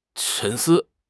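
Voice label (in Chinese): "沉思", Chinese; HSK6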